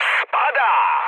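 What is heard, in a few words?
Polish aircraft destroyed mood high; speech; War Thunder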